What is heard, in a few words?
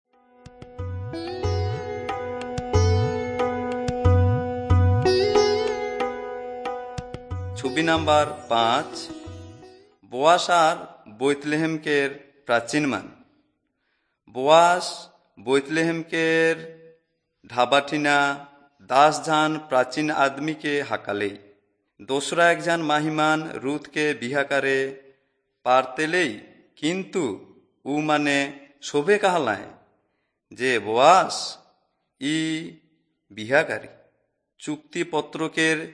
servants of god urao, kurukh drama; bible